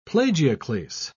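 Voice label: "plagioclase", British English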